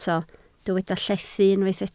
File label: "llethu", Welsh